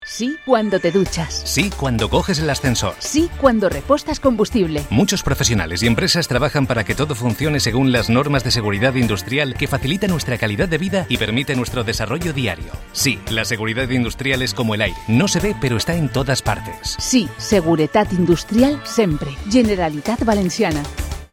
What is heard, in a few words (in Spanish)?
spot radio